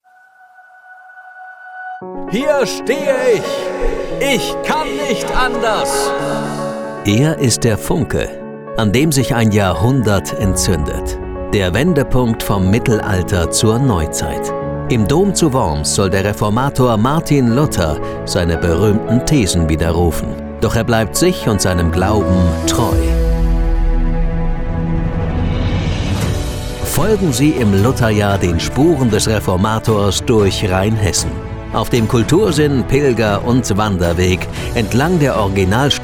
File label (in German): werbung; luther